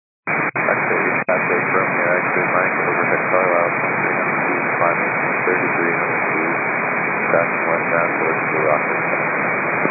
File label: 122.925 MHz; scanner radio